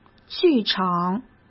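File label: "剧场", Mandarin Chinese; chinese flashcards; hsk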